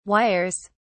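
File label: "wires", English, British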